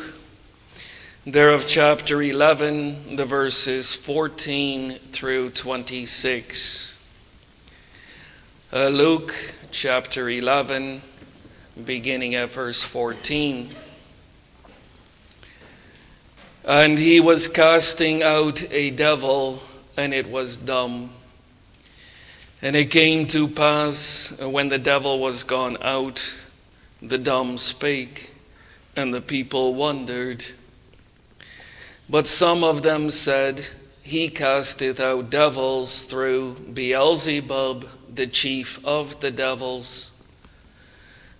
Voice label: Bible Reading; The Strong Man Overcome; luke 11 21 22